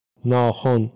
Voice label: "naxon", Persian

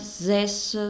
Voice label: "zese", East Frisian

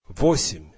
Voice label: "8", Russian

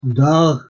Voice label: "dagh", Urdu

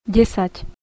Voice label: "10" Slovak

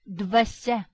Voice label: "dvasia", Lithuanian

